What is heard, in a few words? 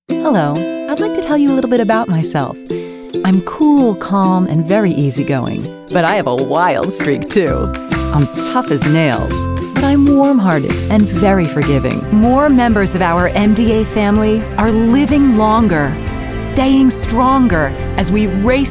Commercial